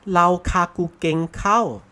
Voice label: "bras basah road", hokkien; singapore